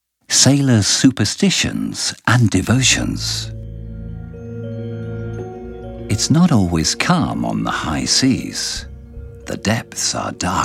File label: audioguia english